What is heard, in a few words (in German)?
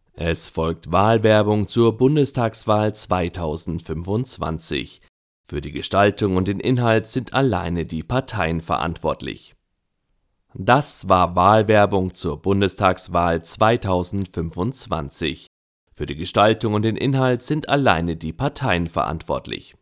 wahlwerbung 2025 parteien